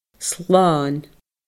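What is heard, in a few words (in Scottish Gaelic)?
"slàn", Scottish Gaelic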